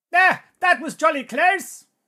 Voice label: battle chatter